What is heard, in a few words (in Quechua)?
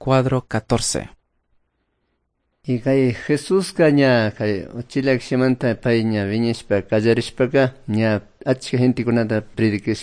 good news quichua cayambe